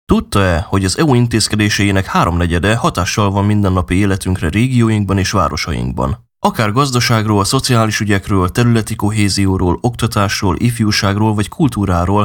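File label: Hungarian, male, corporate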